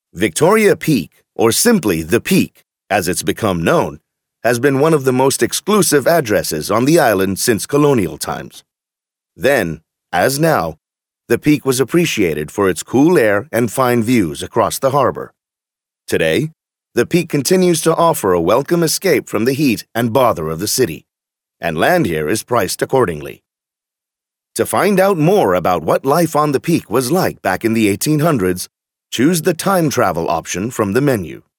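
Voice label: British male corporate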